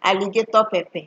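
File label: "alligator pepper", Nigerian Pidgin, female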